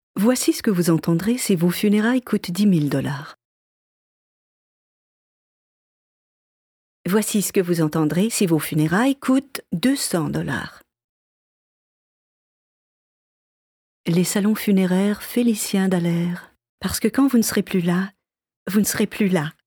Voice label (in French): Pub Salon Funéraire